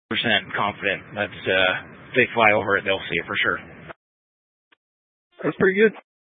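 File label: Incident Tactical 1, Scanner audio recording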